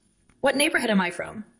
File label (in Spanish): Voice Acting es